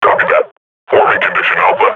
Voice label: combat idle, grunt